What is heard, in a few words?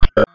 Police Sheriff Dispatch